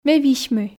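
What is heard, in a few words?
Komi sentences, female; ме висьми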